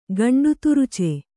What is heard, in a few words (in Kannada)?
"ಗಂಡುತುರುಚೆ", Kannada